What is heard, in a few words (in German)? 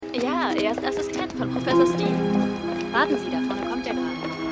Studentin; Outer Limits